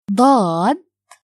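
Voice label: "daad", Arabic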